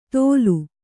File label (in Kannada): "ತೋಲು", Kannada